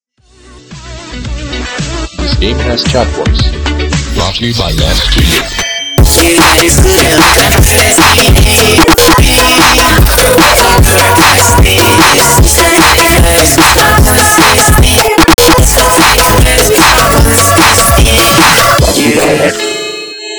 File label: private chat voice